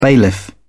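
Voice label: "bailiff", British English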